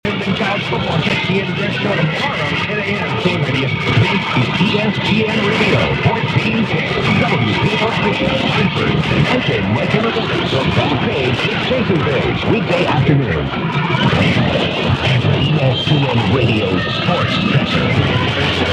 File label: 1410, mw dxing; wpop; hartford